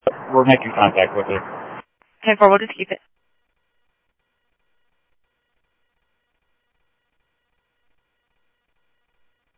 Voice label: Mesa Simulcast police; jumper